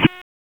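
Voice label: Police Southwest District Dispatch; Raleigh; wake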